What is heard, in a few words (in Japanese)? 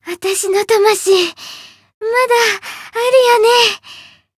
Voice win barely, jp; ilulu